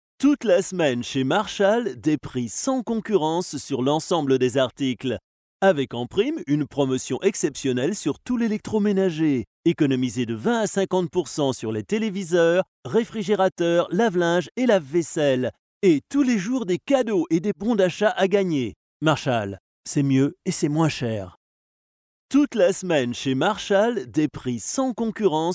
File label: male french voice over sales, france